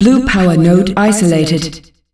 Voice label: "Blue powernode isolated", announcer female; Unreal Tournament; ut2004